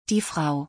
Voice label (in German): "die Frau", German; body parts; Visual Dictionary